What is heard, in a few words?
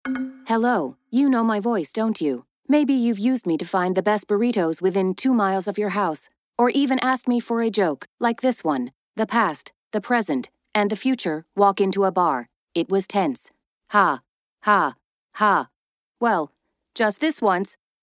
Radio